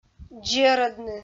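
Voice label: "дзерӧдны", Komi, female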